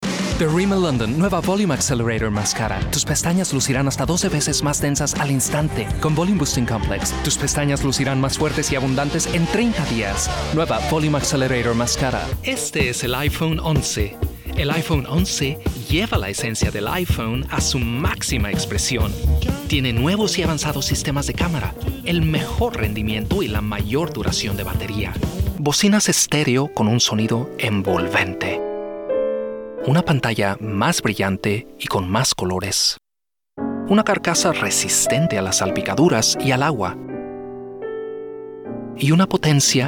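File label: Spanish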